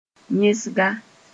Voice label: "yẽsga", moore